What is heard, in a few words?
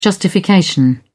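"justification", British English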